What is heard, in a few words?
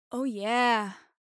girl "oh yeah"; Inseminator